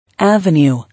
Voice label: "Avenue", English